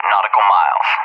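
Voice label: "Nautical miles", English, USA; DCS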